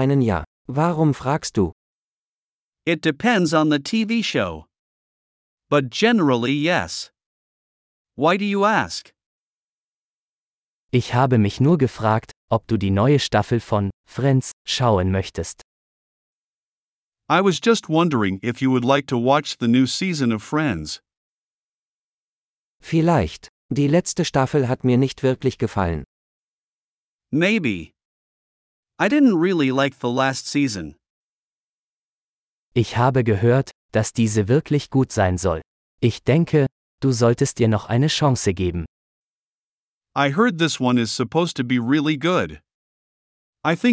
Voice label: Watching TV - Dialogue (with translations), German; conversations-advanced-course